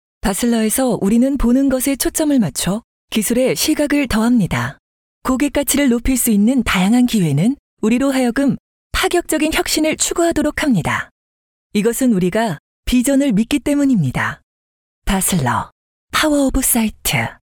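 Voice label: Korean, female, commercial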